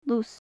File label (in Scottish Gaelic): "luis", Gaelic; quicken-tree; Faclan Nàdair